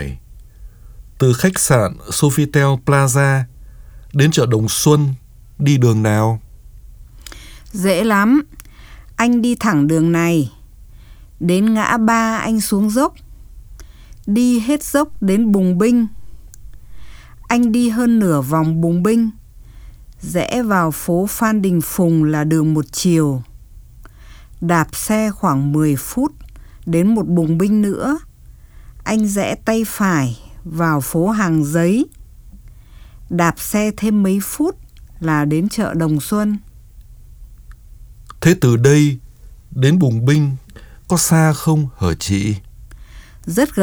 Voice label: Dialog